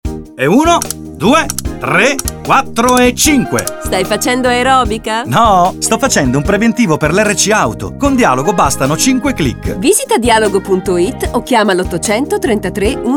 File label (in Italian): dialogo aerobica; Nuovo Spot radio"Dialogo Assicurazioni Gennaio 2010 Aerobica"